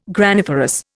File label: "graniferous", Indian